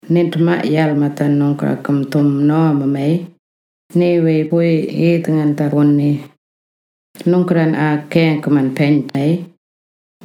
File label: wik mungkan bushfire heatwave